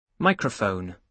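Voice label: "microphone", British English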